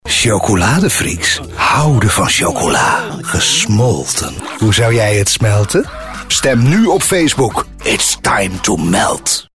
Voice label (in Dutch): sensueel, emoties